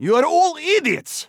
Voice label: Phoenix Scared emote; Counter-Strike Global Offensive; PS3 Windows Xbox 360